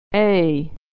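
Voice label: "a", American English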